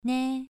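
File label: "nei", tone 2, pronunciation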